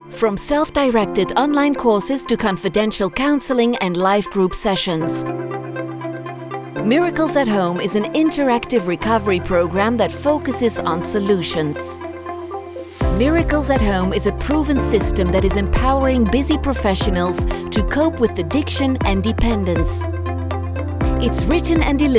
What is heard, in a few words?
english narration; flemish